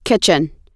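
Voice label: "kitchen", American English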